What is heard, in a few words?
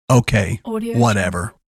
male voice okay whatever